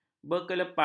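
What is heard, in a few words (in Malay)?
"berkelepai", Malay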